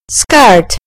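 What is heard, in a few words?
"skirt", British English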